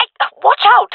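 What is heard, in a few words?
taking fire friendly, jungle female; vo; Counter Strike Model Pack; CS:GO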